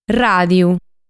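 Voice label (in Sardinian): "Ràdiu", Sardinian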